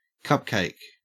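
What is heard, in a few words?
"cupcake", Australian English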